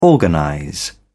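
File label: "organize", British English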